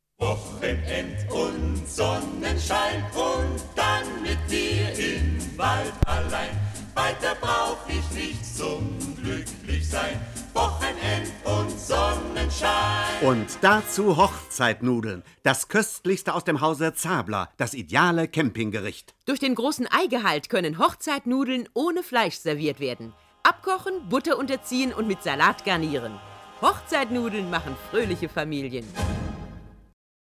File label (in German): Radio Spot historisch; HochzeitNudeln